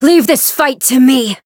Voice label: Voice variable chant; hisumena

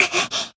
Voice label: Voice damaged jp; leviachan

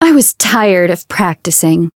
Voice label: Voice chant; isuka

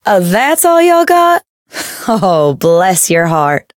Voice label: Voice chant; hoozuki

